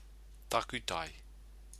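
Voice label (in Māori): "takutai", Māori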